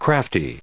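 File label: "crafty", US English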